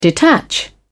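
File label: "detach", British English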